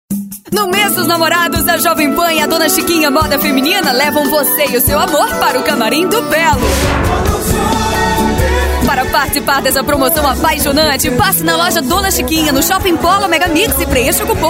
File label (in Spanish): locutora